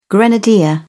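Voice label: "grenadier", British English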